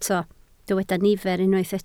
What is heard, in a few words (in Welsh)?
"nifer", Welsh